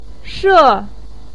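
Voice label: "she", Chinese pinyin, fourth tone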